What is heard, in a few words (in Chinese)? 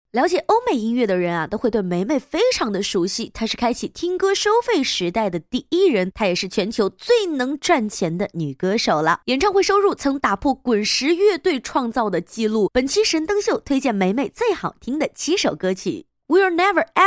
女 短视频; 神灯秀